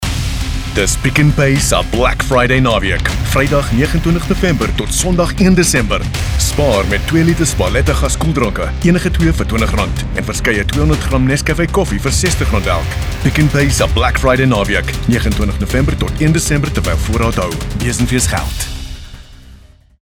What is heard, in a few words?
Afrikaans Hardsell Showcase